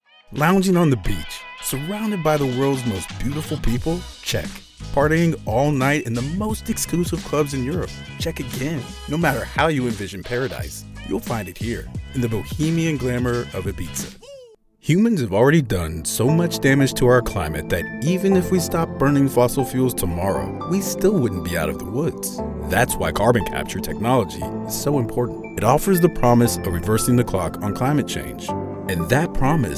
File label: Narration